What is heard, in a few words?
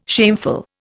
"shameful", Indian